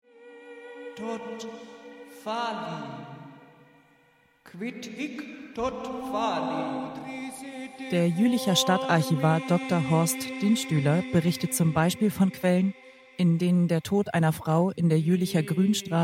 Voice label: VOICEOVER SCIENCE